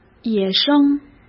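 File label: "野生", Mandarin Chinese; chinese flashcards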